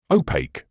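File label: "opaque", British English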